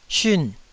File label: "siun4", Taiwanese